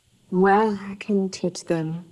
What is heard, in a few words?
Voice Acting es